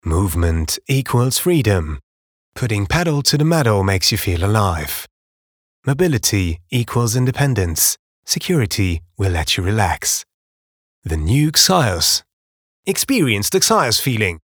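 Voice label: British English, Sanft; Xios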